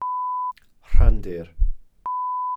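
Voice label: "rhandir", Welsh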